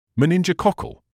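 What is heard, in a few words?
"meningococcal", British English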